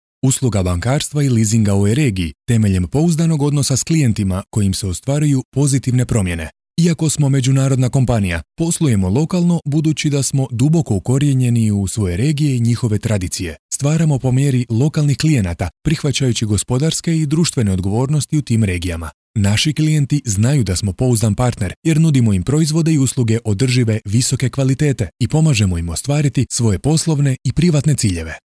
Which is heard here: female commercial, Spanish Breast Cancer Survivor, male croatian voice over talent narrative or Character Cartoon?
male croatian voice over talent narrative